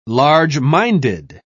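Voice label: "large-minded", British English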